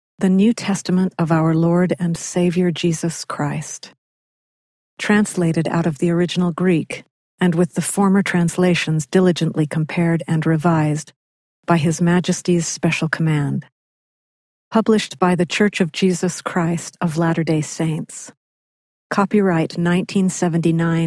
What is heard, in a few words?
female voice; title page, eng; the new testament